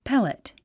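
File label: "pellet", English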